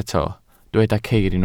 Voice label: "ceir", Welsh

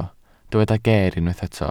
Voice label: "geer", Welsh

